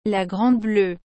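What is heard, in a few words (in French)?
"la grande bleue", French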